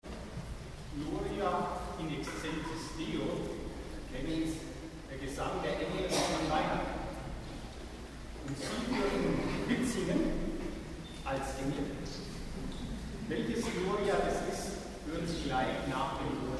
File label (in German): Ansage